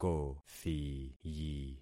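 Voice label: "kothiyi", concatenated syllables; Affixing experiment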